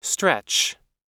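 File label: "stretch", US English word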